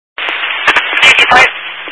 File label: Highway Patrol, scanner; DPS